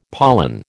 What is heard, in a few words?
"pollan", American